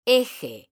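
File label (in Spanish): "eje", Spanish, female; mekanta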